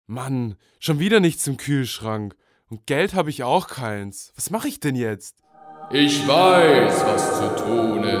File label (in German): Werbung Sparkasse